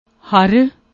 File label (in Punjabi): "har", Punjabi; ਹਰਿ